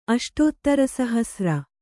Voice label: "ಅಷ್ಟೋತ್ತರಸಹಸ್ರ", Kannada

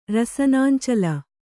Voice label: "ರಸನಾಂಚಲ", Kannada

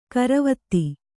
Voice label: "ಗೊರವಿತಿ", Kannada

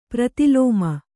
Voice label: "ಪ್ರತಿಲೋಮ", Kannada

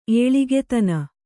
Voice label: "ಏಳಿಗೆತನ", kannada

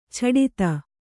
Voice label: "ಛಡತ", Kannada

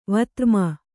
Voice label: "ವತ್ರ್ಮನ", Kannada

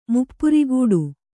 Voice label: "ಮುಪ್ಪುರಿಗೂಡು", Kannada

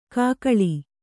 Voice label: "ಕೂಗಳಿ", Kannada

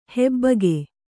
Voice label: "ಹಬ್ಬುಗೆ", Kannada

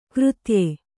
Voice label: "ಕೃತ್ಯ", Kannada

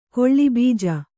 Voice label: "ಕೊಳ್ಳಿಬೀಜ", Kannada

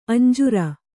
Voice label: "ಅಂಜೂರ", Kannada

